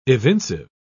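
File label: "evincive", British English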